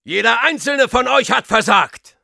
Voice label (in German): Soldier jeers, de